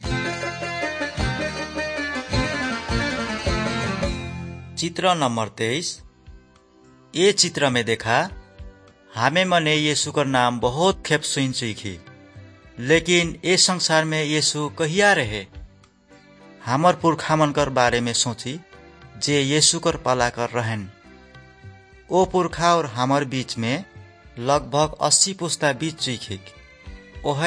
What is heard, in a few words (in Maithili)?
good news maithili, nt drama